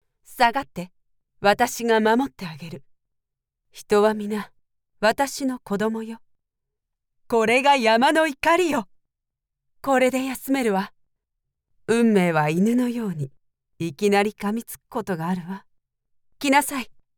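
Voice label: Japanese female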